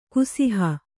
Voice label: "ಕುಸಿಹ", kannada

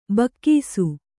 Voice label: "ಭಾಗಸ", Kannada

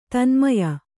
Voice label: "ತನ್ಮಯ", Kannada

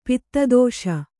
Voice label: "ಪದದೋಷ", Kannada

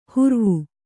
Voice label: "ಹುರ್ವು", Kannada

